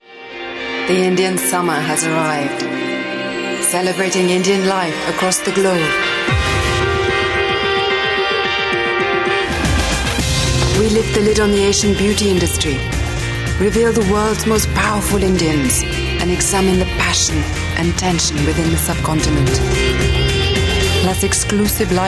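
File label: Hindi English; Male, Home Studio, 30s-40s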